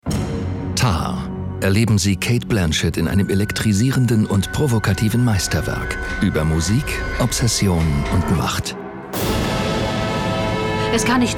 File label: OFF Trailer Funk; TÁR